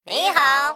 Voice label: Male Mech ERROR